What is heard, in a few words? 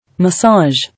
"Massage", English